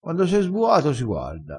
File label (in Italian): "sbua", vocabolario dialettale, crespinese